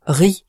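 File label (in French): "riz", French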